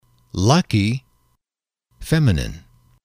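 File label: "lucky", English, male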